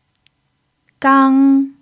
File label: "gang1", chinese